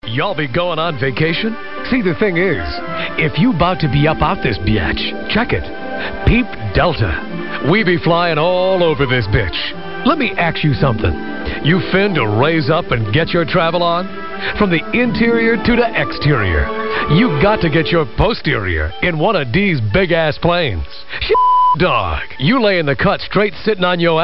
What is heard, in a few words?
Commercial; Delta